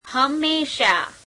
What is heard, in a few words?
gujarati, always; હંમેશાં [hememeeśheām]